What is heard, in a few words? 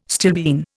"stilbene", Indian